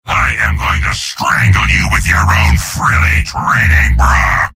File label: soldier taunts; tf2; garrysmod; mvm